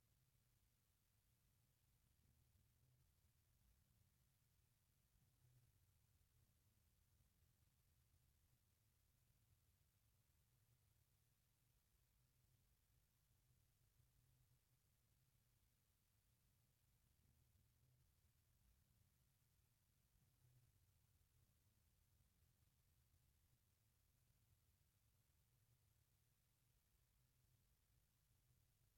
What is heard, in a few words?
spanish directions part b